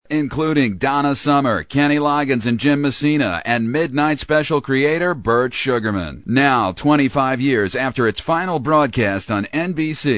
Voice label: Infomercial Music Sell - Standard Sell, commercials